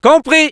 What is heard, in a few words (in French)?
Scout yes, fr; "Compris !"